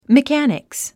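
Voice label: "mechanics", English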